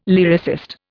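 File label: "lyricist", Indian English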